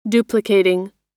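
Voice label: "duplicating", American English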